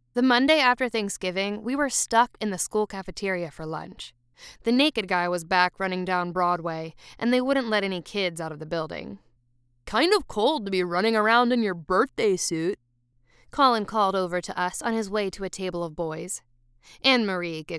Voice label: Youth, 1st Person